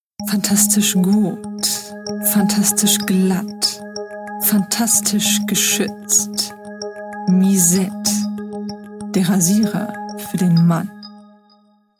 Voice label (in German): Werbung, Sprech